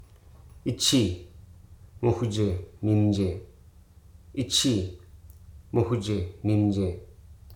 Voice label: "his", Hruso